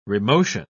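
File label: "remotion", English, British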